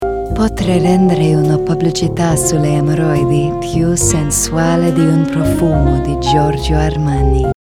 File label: ITALIAN